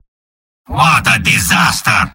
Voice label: spy jeers; mvm